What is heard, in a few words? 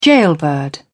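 "gaolbird", English, British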